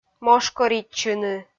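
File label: "мошкоритчыны" Komi, female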